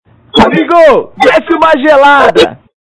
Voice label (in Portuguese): Desce Uma Gelada, Vinhetas